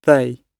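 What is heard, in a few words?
"they", British English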